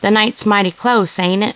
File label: follow, woman